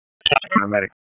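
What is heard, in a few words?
Wake County EMS Dispatch, p25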